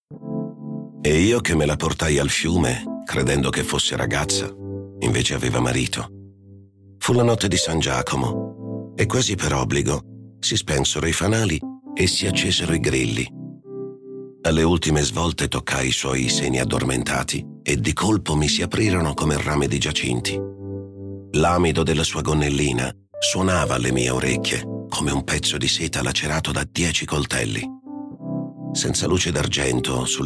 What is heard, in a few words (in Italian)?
AudioLibro